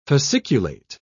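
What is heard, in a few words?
"fasciculate", British English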